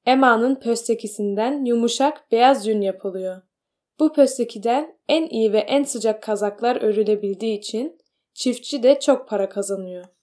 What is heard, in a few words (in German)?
türkisch